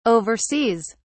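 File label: "oversees", English, British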